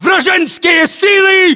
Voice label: threat infantry generic, english; localized english